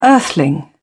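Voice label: "earthling", British English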